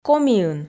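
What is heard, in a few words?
"комиын", Komi, female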